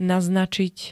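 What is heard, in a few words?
"naznačiť", Slovak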